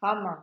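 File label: "hammer", Nigerian Pidgin, female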